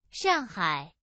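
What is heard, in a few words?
"Shanghai", Chinese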